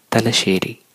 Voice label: Thalassery pronunciation